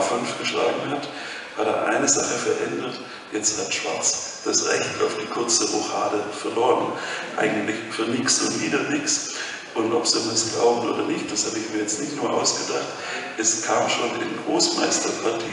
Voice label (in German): kommentiert, Schach; Eppingen; Bundesliga